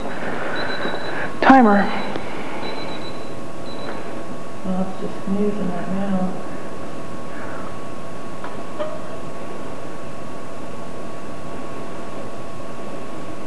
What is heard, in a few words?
whisper